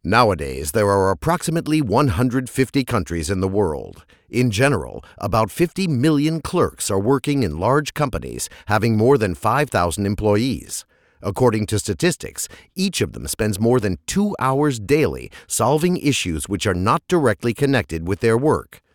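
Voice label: American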